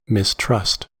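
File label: "mistrust", US English; pronunciations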